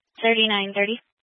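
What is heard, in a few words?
Police Dispatch, Scanner audio; Police 1 Dispatch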